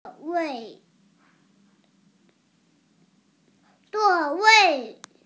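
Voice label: "zuo4wei4", Mandarin Chinese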